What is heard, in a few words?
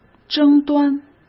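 "争端", Mandarin; chinese flashcards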